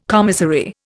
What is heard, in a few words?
"commissary", Indian